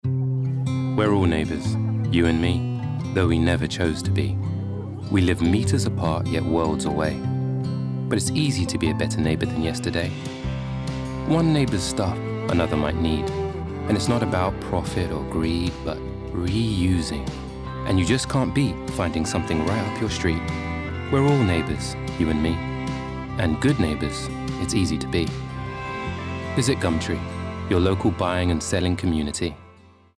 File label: Commercial; Gumtree